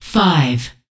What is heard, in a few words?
Voices Announcer Status